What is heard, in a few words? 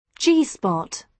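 "g spot", British English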